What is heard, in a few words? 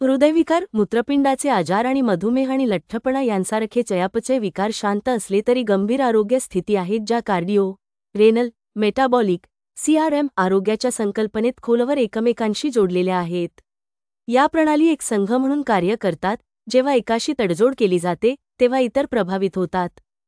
Female Marathi